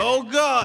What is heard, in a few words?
"oh god", male; Voice